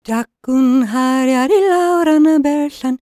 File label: WELSH F, World Voices